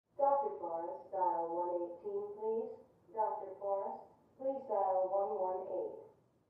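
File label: Hospital Pa; Short Announcement, From Down Hallway.; Short Announcement, From Next Room.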